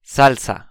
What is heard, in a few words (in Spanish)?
"salsa", espanhol; estilos musicais em espanhol